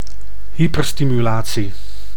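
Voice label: "hyperstimulatie", Dutch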